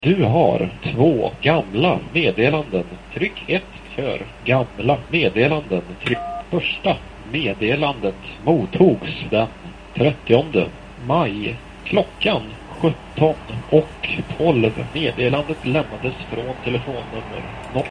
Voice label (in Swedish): voicemail exempel